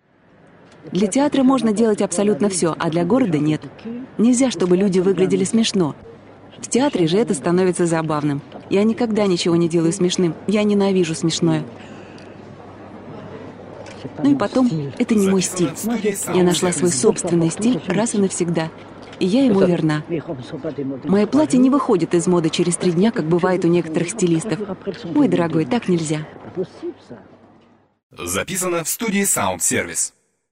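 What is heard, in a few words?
video voiceover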